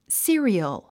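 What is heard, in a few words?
"cereal", English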